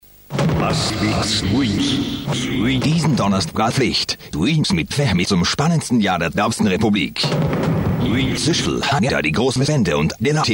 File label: commercials